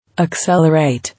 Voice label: "Accelerate", English

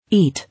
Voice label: "Eat", English

